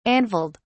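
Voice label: "anvilled", British English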